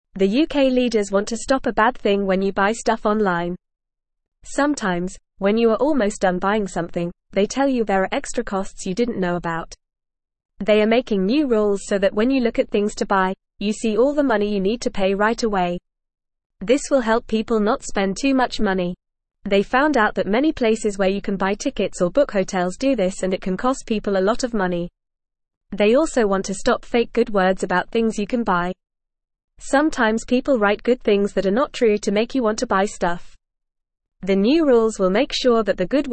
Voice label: Beginner FAST Reading, English; English Newsroom; New Rules to Stop Tricky Online Shopping